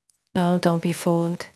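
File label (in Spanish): Voice Acting es F, Spanish, female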